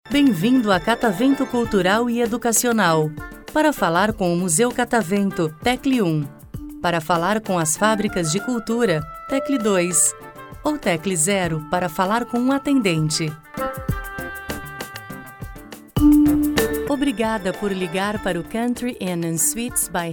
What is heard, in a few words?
portuguese brazilian ivr